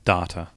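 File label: "data" Australian, New Zealand English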